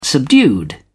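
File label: "subdued", British English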